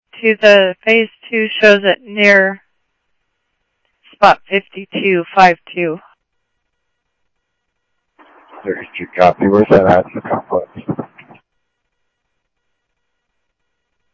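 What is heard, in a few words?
Mesa police department, scanner audio trunk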